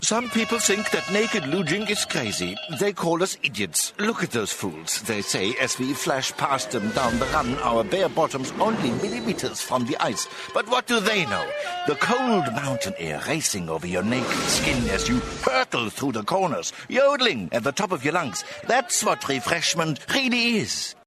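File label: German English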